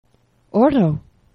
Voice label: "oro", Esperanto; geneva

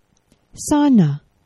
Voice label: "sana", Esperanto; geneva

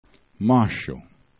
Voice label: "masxo", esperanto

